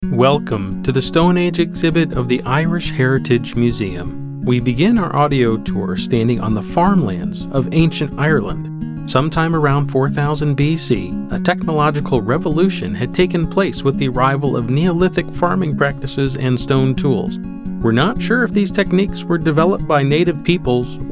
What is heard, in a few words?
narrative